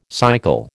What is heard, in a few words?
"cycle", American